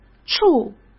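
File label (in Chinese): "处", Mandarin Chinese; hsk; chinese flashcards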